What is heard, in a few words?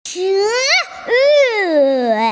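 "shi2yue4", Mandarin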